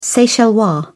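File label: "seychellois", British English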